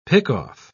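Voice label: "pick-off", British English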